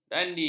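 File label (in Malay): "dandi", Malay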